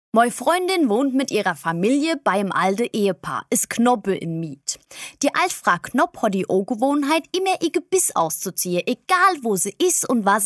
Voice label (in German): Mainzerisch